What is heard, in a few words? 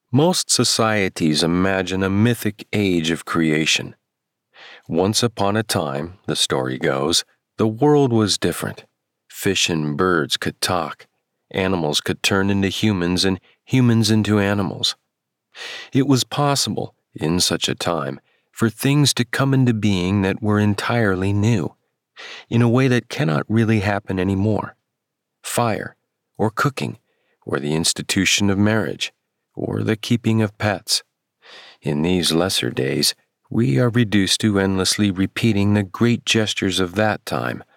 Nonfiction Persuasive